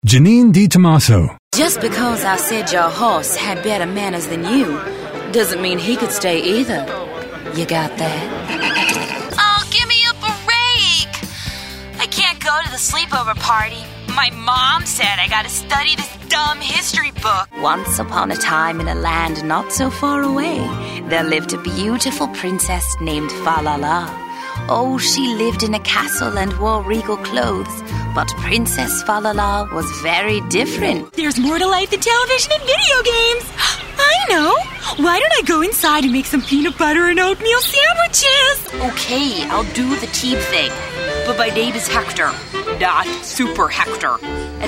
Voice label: Animation